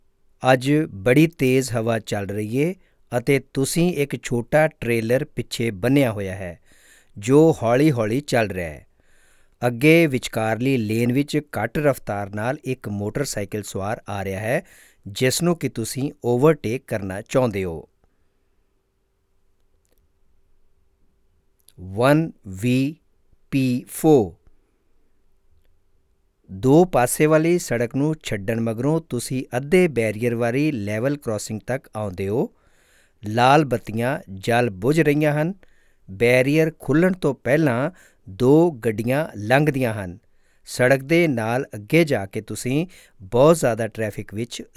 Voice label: Punjabi